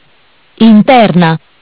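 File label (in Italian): "Interna", Italian; Bentel; BLE320; MESSAGGI VOCALI; Audio BGS220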